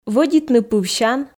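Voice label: "вӧдитны пывсян", Komi, female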